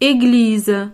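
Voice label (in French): "eglise", French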